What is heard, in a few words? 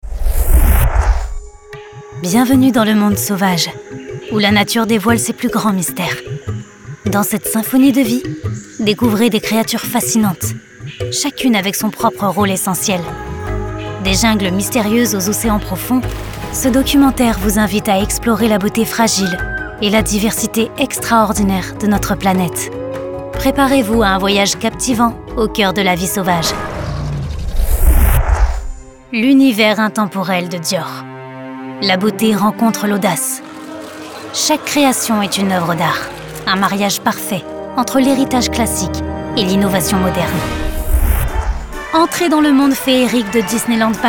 french documentaries